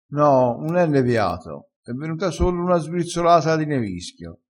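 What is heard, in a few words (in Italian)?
"sbrizzolata", dialettale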